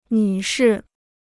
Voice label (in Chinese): "女士", Chinese